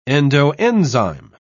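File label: "endoenzyme", British English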